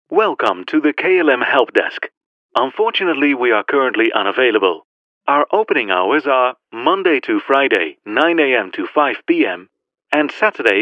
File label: dutch male ivr english